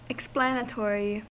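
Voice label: "explanatory", American English; pronunciations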